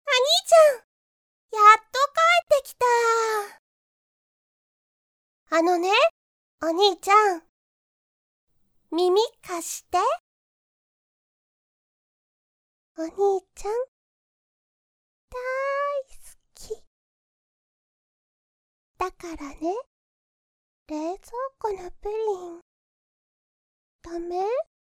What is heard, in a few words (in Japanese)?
妹